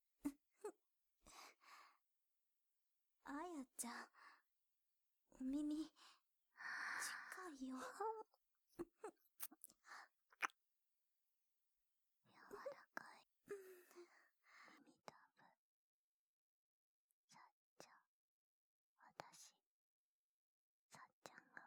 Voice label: BGMなしトラック5 ささやき添い寝, ASMR

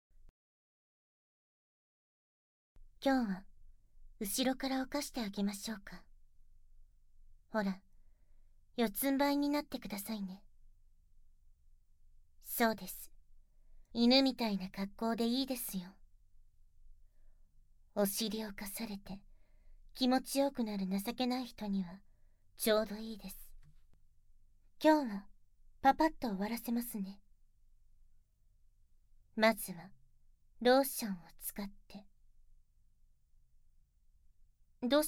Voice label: 効果音あり 手コキ・耳舐め・ささやき・乳首責め, ASMR; バックから淡々と調教; バックから逆アナル・手コキ・耳舐め・ささやき・乳首責め・逆フェラ・逆口内射精・逆お掃除フェラ

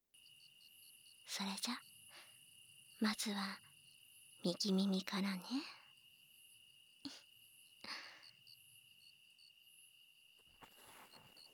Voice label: 優しいママの耳かき BGMなし, ASMR

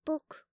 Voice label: "ぽく", 擬音

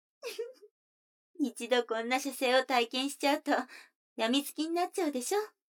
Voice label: 女の子台詞

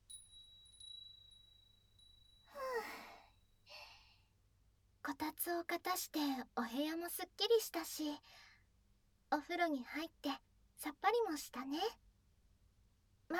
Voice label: お姉ちゃんに耳かきで癒され, ASMR; 名前呼び無し

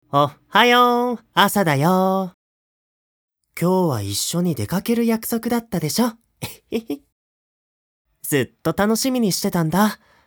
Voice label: ちょっと妬いちゃうな? アラームなし

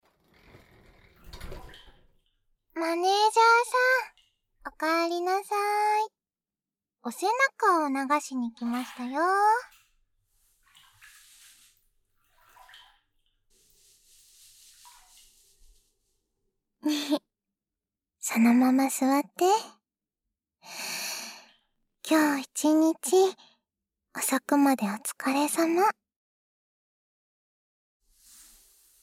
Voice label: ささやき手コキ; 反転トラック; スク水お風呂ささやき手コキ編

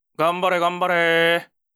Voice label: ouen, ASMR

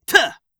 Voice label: "たあっ！", 戦闘ボイス 青年 中音

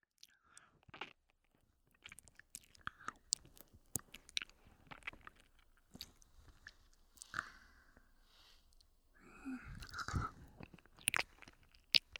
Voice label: はむはむ 右, ASMR

